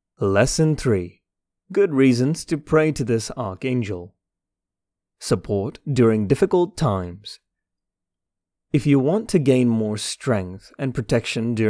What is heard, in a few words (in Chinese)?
课程讲解 沉稳